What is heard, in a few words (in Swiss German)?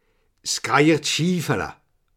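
"schifele", Alsatian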